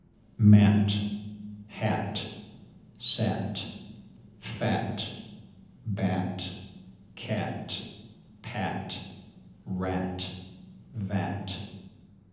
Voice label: mat hat sat, Harrison 123 Reverberation; Playback of rhyming words recorded in Harrison 123